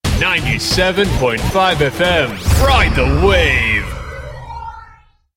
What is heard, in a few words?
radio